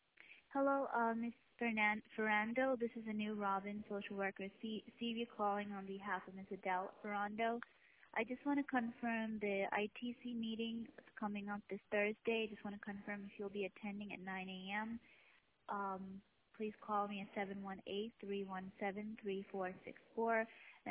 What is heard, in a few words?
voicemail